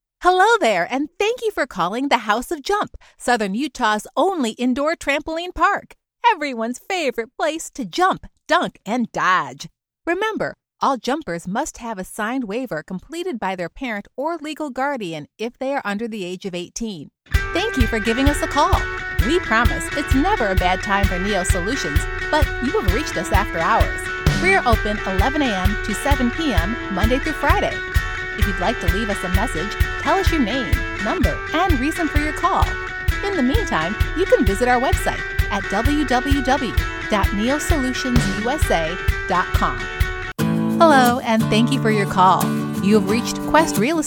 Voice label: IVR greeting